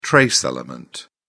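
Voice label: "trace element", British English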